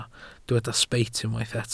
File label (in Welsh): "sbeit", Welsh